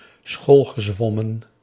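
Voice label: "schoolgezwommen", Dutch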